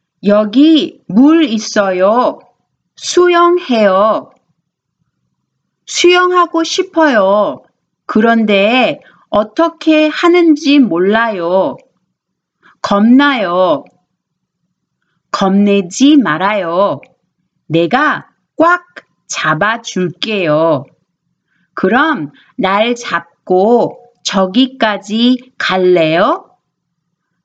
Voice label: Conversation